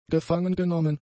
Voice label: "gefangengenommen", German; adjectives

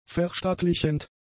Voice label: "verstaatlichend", German; adjectives

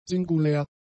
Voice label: "singulär", german; adjectives

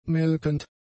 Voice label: "melkend", German; adjectives

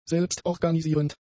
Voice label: "selbstorganisierend", German; adjectives

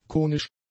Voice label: "konisch", German; adjectives

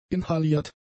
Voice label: "inhaliert", German; adjectives

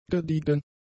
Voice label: "gediegen", German; adjectives

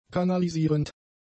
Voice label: "kanalisierend", German; adjectives

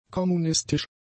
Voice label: "kommunistisch", German; adjectives

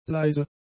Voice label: "leise", German; adjectives

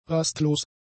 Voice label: "rastlos", German; adjectives

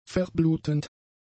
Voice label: "verblutend", German; adjectives

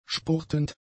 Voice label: "spurtend", German; adjectives

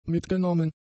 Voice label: "mitgenommen", German; adjectives

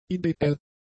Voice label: "ideell", German; adjectives

